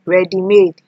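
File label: "readymade", Nigerian Pidgin, female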